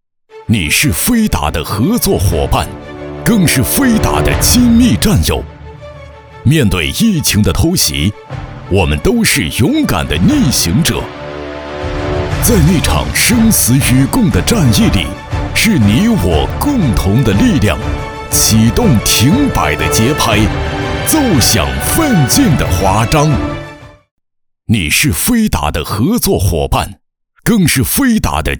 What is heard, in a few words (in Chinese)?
男 专题 颁奖